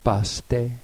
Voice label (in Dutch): "pasta", oudgrieks; παστη; saus met bloem